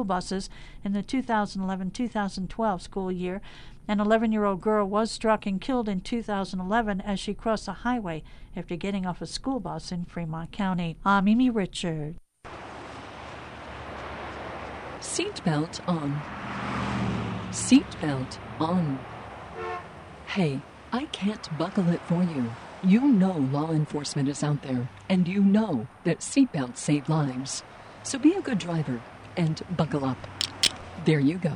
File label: wyoming radio news